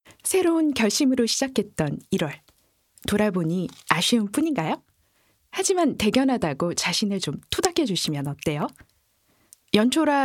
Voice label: Korea W1, voiceactors

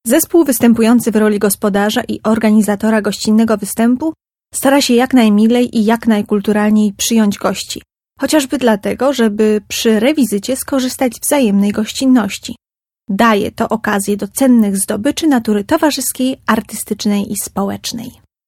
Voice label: Polen W

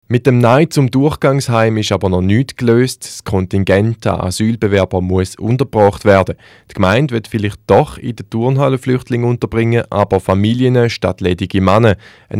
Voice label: Schweiz M

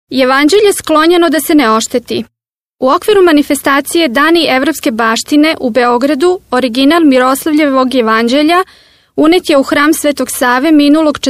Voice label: Serbien W

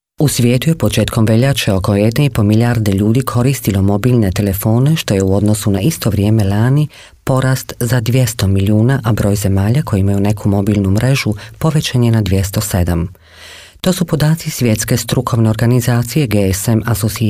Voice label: Kroatien W

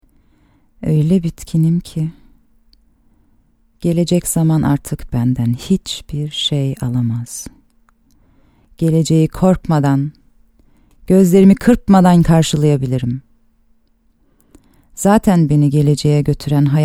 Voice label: Tuerkei W

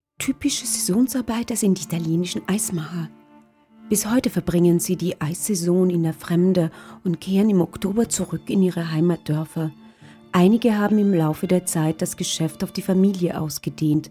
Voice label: Oesterreich W35

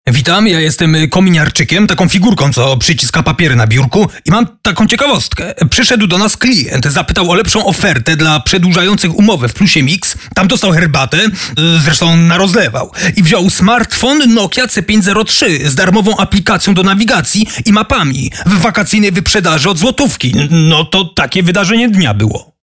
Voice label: Polen M3, voiceactors

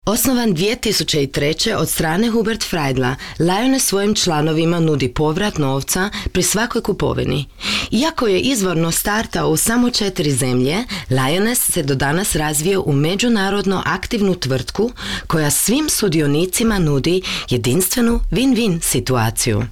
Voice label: Kroatien W

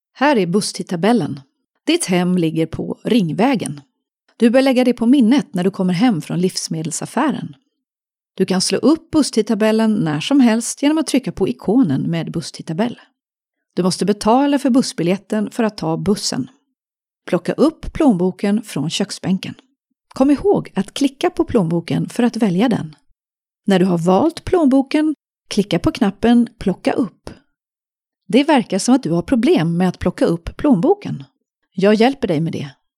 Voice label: Schweden W

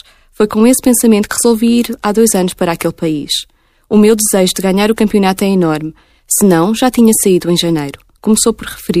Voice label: Portugal W

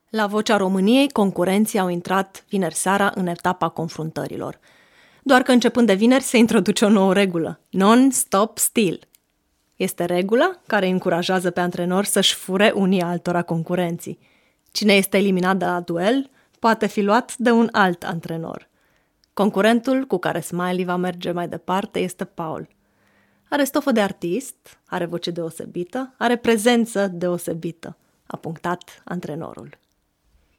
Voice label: Rumaenien W5, voiceactors

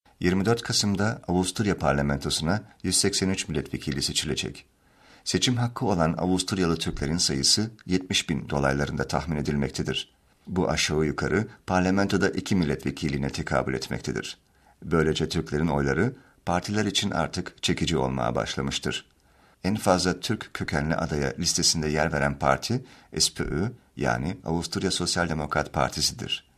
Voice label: Tuerkei M

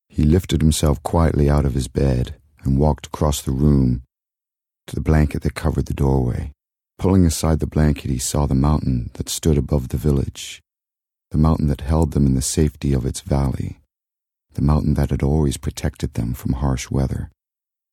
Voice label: Kanada M

